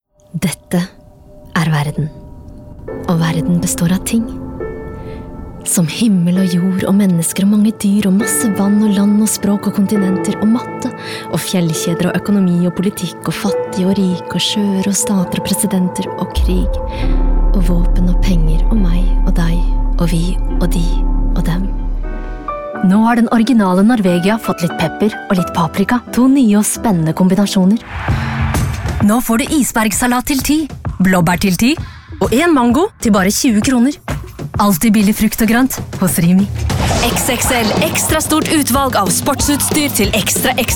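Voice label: Norwegian showreel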